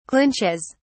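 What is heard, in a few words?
"clinches", British English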